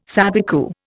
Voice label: "sabicu", Indian